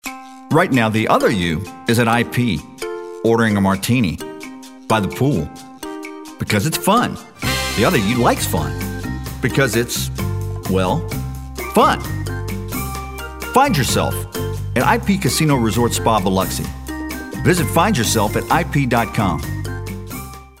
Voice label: cool jazzy

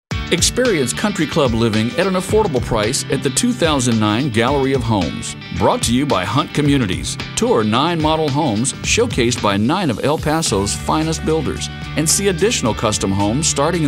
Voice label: friendly

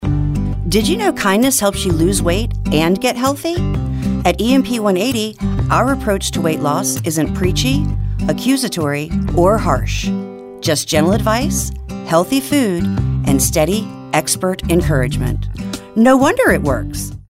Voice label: conversational

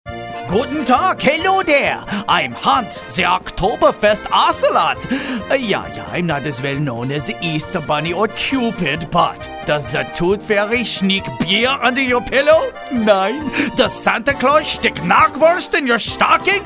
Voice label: german character

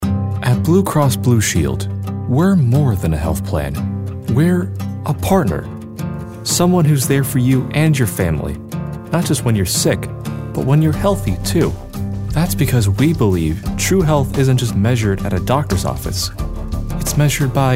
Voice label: warm

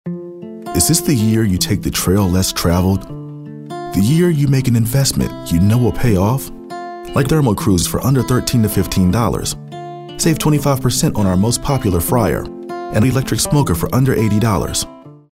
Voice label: soft spoken

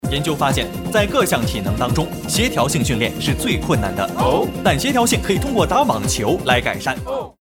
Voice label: Foreign Commercial

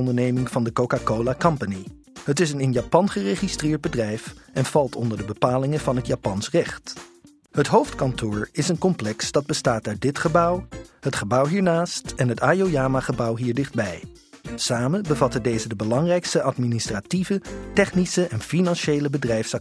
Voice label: Dutch

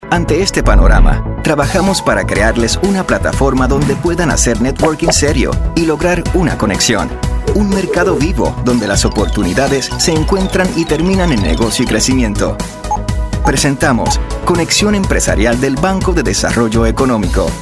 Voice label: Narration

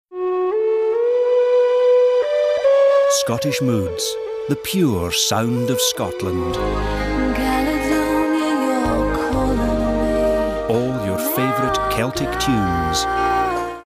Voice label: smooth

